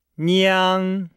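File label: "niang", Chinese; Nihao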